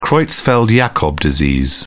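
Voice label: "creutzfeldt-jakob disease", British English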